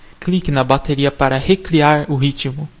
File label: play rhythm, voices pt_BR; gcompris